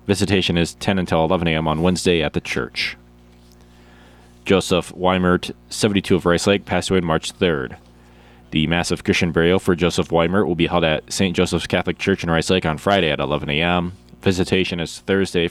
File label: Funeral Announcements